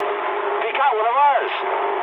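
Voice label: English aircraft destroyed mood med; speech; War Thunder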